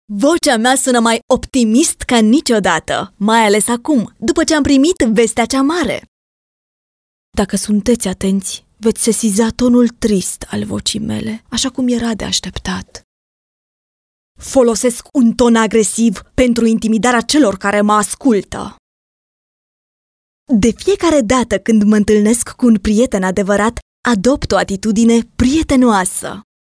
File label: Romanian female